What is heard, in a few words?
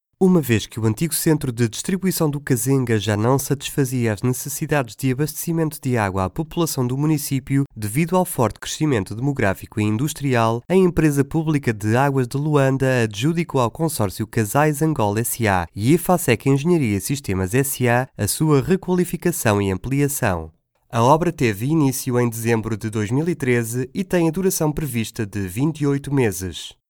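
Corporate Portuguese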